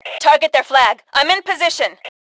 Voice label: Target Flag, Female; T2 Female 01

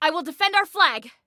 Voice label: Self Defend Flag, Female; Female 02

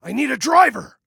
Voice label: Need Driver, Male

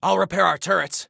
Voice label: Self Repair Turrets, Soldier Male

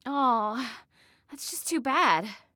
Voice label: Taunt "Aww", Female; Female 02

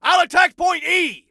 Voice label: ATTACK, Soldier Male

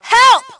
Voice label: Team Help, Female; T2 Female 01

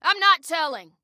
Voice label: Team Don't Know, Female

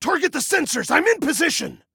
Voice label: Target Sensors, Male

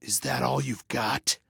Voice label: Taunt Obnoxious, Male

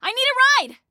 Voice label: Need Ride, Female; Female 02

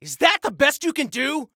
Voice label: Taunt Obnoxious, Soldier Male

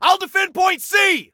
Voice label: ILL DEFEND, Male; T2 Male 01

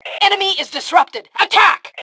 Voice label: Enemy Disarray, Female

